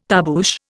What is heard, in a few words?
"tarboosh", Indian